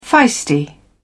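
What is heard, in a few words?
"feisty", British English, dictionary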